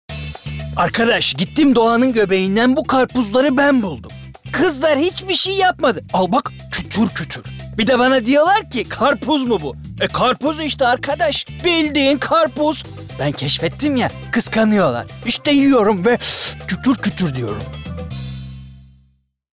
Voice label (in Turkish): Komik Esprili